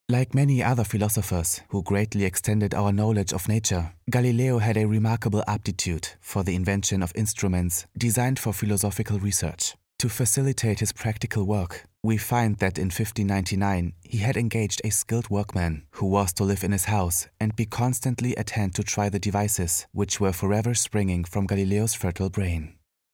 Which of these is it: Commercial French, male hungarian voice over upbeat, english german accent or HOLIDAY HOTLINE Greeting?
english german accent